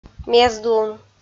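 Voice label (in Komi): "мездлун", Komi-Zyrian, female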